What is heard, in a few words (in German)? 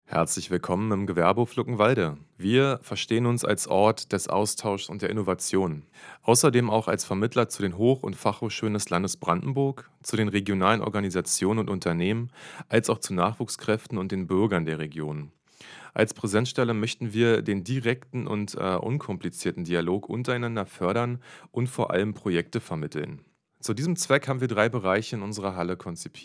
Audio Guide; Gewerbehof Luckenwalde